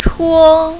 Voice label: "chuo1", mandarin, female